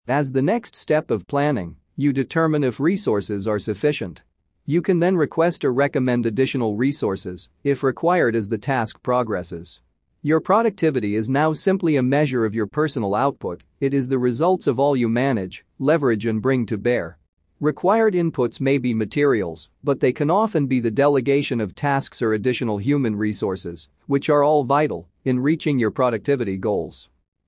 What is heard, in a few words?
Productivity Male Audio